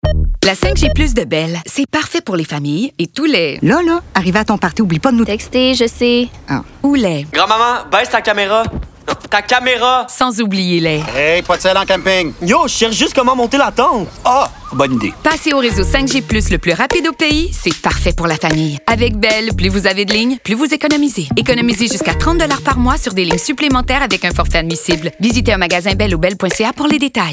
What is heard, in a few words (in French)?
Publicité; Bell